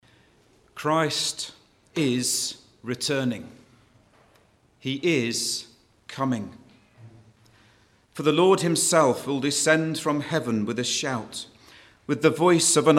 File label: Choir Narration